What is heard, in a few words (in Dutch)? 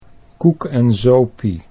"koekenzopie", Dutch